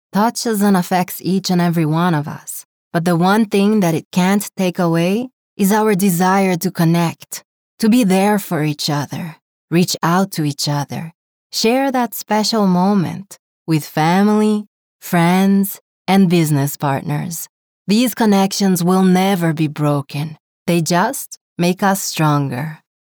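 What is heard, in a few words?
female, Brazilian English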